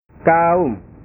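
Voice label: "kaum", Indonesian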